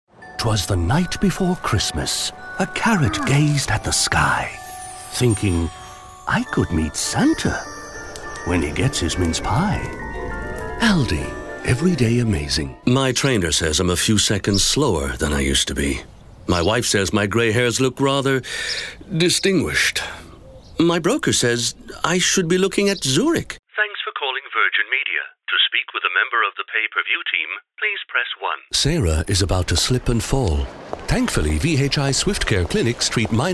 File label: Voiceover